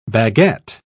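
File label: "baguet", British English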